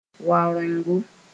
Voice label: "waorengo", Mooré; bronchitis